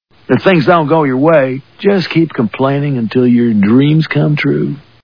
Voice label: complain